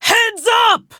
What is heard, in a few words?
Enemy Spotted Female